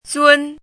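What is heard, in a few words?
"zun1", Mandarin pinyin syllable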